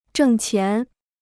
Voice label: "挣钱", Chinese